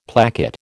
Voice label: "placket", American